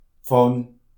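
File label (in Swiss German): "pfann", Alsatian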